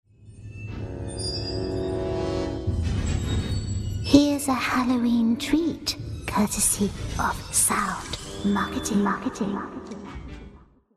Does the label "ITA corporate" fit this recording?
No